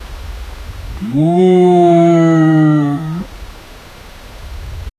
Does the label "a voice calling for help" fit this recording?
Yes